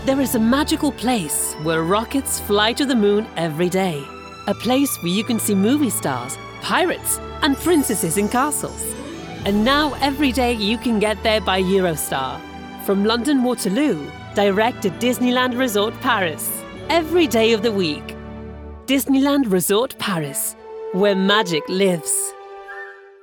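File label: Italian, female, English UK, ad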